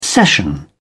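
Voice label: "session", British English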